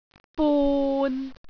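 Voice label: "bun6", Cantonese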